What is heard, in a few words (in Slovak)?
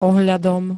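"ohľadom", Slovak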